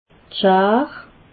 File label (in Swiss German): "schar", alsace